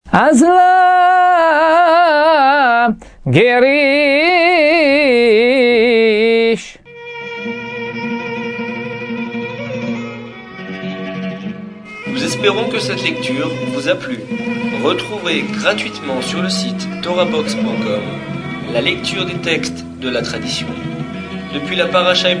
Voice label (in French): apprentissage taamim selon le rite constantinois